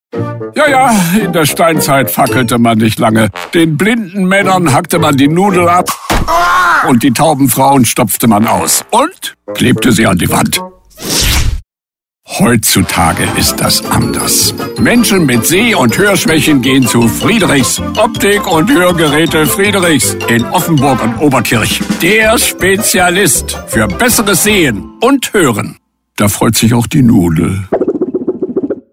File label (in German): telefonansagen sprecher; michael caine